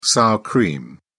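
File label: "sour cream", British English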